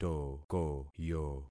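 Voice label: "tokoyo", concatenated syllables; Affixing experiment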